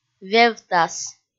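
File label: "вевтас", Komi, female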